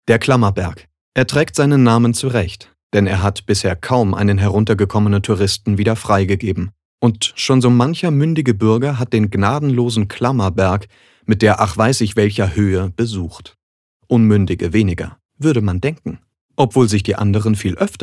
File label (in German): Kommentar Neutral